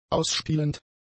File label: "ausspielend", German; adjectives